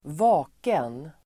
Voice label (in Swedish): "vaken", Swedish